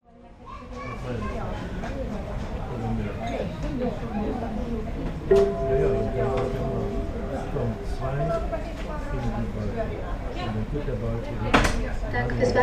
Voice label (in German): Flughafen Ansagen, Flug nach Helsinki